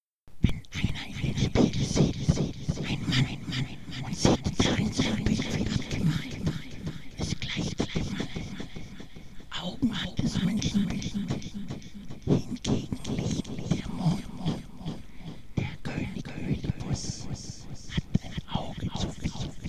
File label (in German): echo fluester; hoelderlin; oedipus